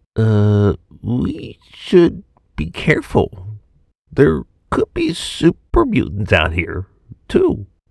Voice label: Military Solider Scared Slow Applachian Drawl